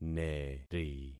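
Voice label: "neri", concatenated syllables; Affixing experiment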